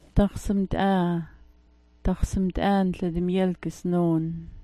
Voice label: "daxsmt'aa", smalgyax

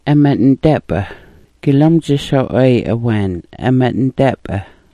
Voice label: "ama'ndap'a", smalgyax

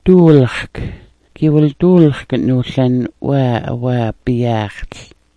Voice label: "duulxk", smalgyax

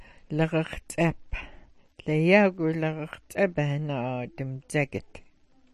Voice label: "lagaxts'ap", smalgyax

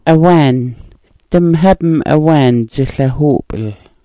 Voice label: "awaan", smalgyax

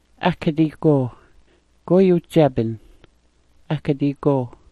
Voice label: "akadi goo", smalgyax

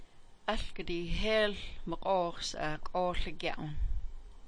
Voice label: "aLgadi", smalgyax

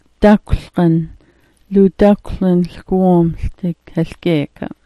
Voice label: "dakwlgn", smalgyax

